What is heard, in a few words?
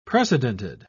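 "precedented", British English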